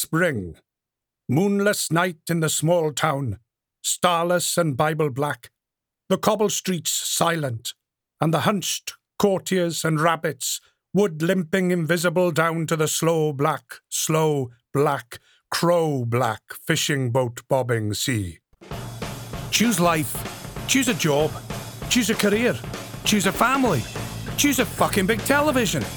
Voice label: Accents Showreel

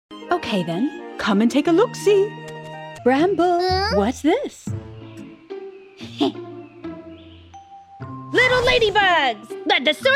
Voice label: children

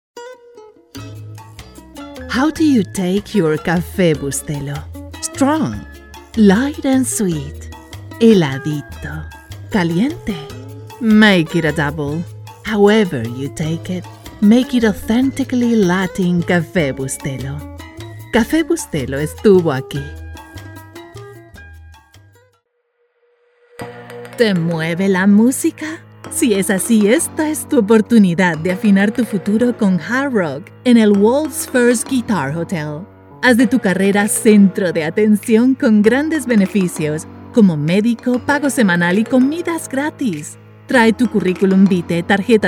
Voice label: BILINGUAL ENG SP

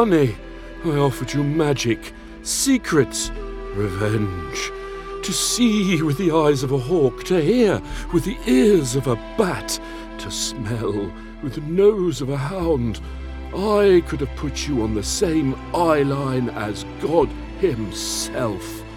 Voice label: Character Narration

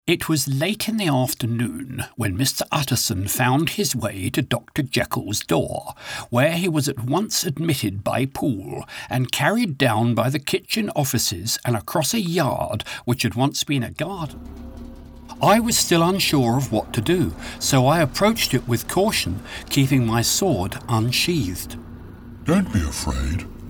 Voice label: Character

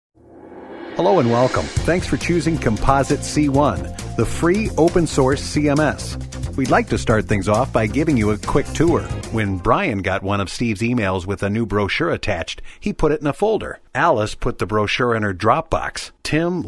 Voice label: Explainer Webinar Tutorial Instructional

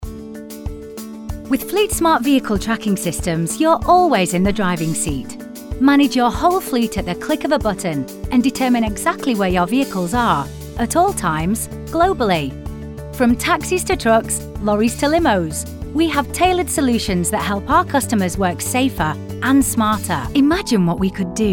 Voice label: Corporate Narrative

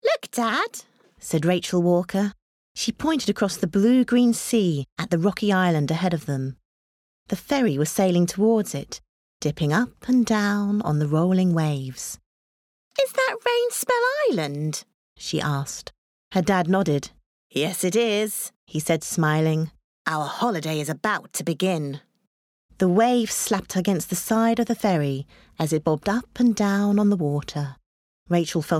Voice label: audiobook

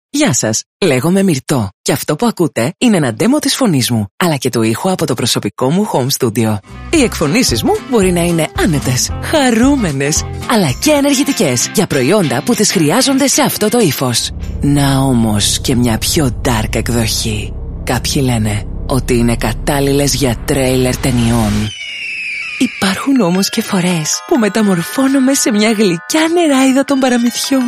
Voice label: Greek Show Reel

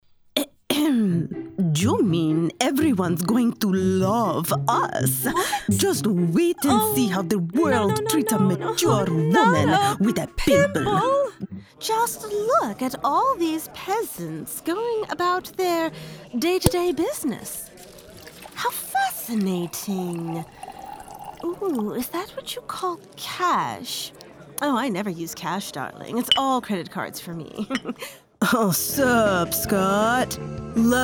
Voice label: Animation Demo

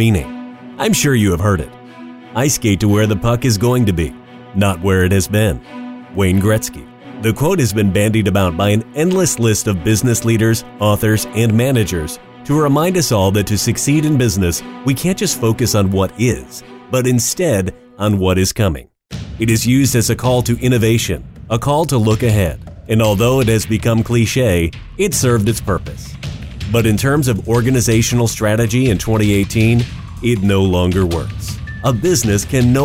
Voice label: Narrative

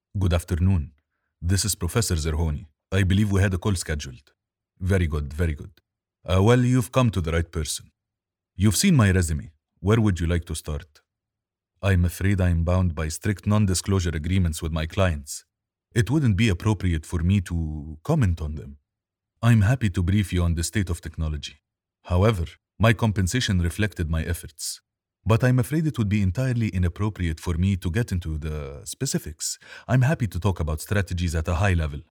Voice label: Accent

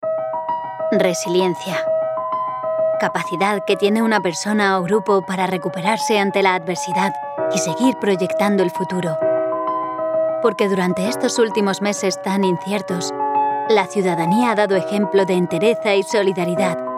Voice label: EMOTIONAL CORPORATE

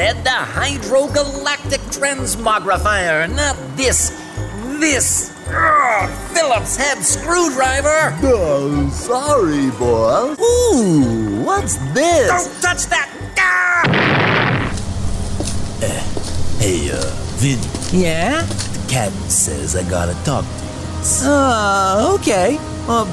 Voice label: Character Demo 12 Voices

